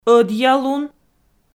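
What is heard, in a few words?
"ӧдъялун", Komi, female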